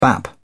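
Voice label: "bap", British English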